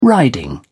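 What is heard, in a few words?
"riding", British English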